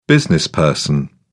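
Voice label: "businessperson", British English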